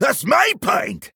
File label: Male Dwarf Attack